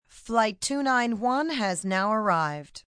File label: Flight Arrived, Airport, PA, announcements, female